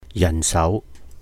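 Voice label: "yàhn sáu", Cantonese; manpower; 人手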